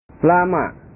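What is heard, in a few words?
"lama", Indonesian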